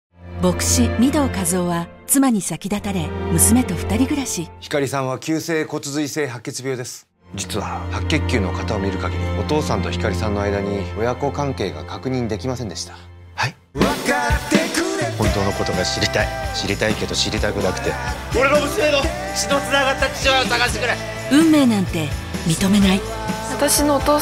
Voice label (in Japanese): ヒューマンドラマ、エモイ、温かい; movies; マイ・ダディ